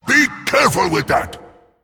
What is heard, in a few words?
Male Dragon Drakonid Attack